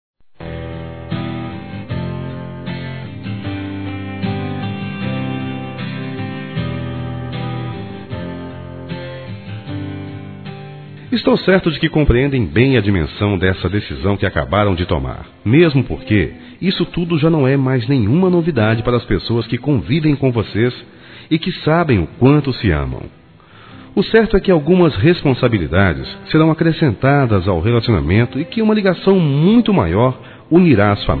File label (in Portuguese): Felicidade Casamento Masculino, Telemensagens; Telemensagens 2017